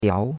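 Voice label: "diao2", Chinese; Cours-de-Chinois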